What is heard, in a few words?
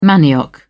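"manioc", British English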